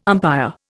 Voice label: "umpire", Indian